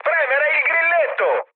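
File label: Italian attack position mood med, speech; War Thunder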